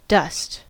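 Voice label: "dust", US English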